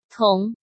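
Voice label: "Tóng", Chinese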